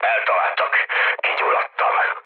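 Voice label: Hungarian on fire mood low, speech; War Thunder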